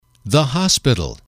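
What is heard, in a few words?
"hospital", English, male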